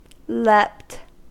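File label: "leapt", US English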